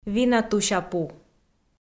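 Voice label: "винатусяпу", Komi, female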